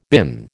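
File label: "been", American English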